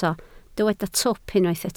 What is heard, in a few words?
"twp", Welsh